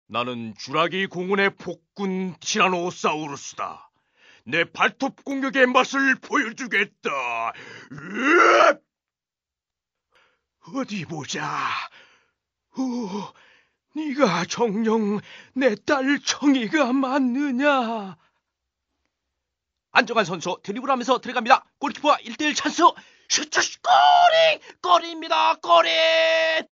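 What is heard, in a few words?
Korean male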